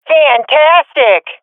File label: cheer, swat fem; vo; Counter Strike Model Pack; CS:GO